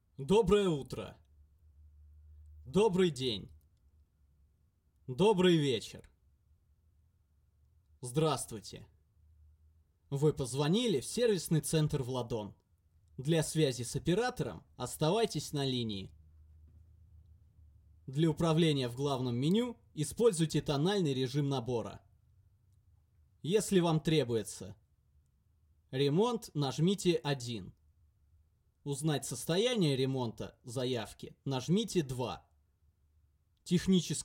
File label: full normal voice